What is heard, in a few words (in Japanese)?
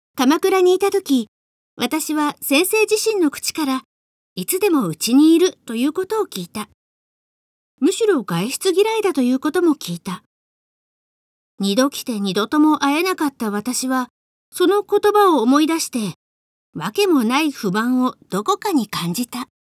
girl